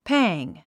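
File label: "pang", English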